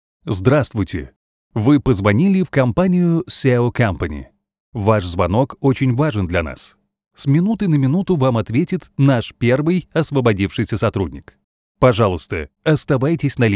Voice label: IVR